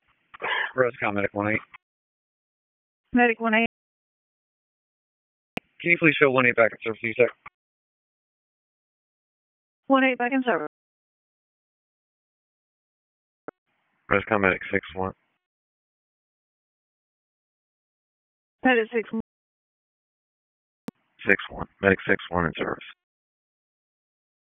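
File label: EMS Dispatch, p25; wake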